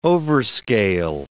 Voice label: "overscale", English